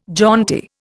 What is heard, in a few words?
"jaunty", Indian